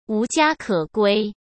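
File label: "无家可归", Mandarin Chinese; hsk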